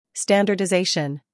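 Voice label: "humor", British; standardisation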